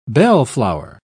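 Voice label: "bellflower", British English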